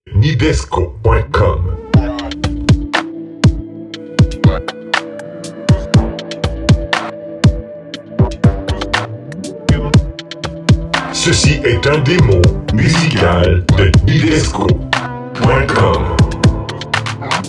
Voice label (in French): annonce radio